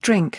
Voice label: "drink", English; express english